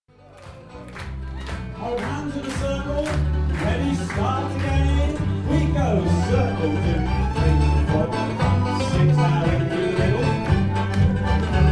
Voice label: Dance - with Caller